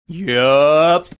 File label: drawn out "yup"